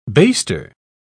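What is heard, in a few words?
"baster", British English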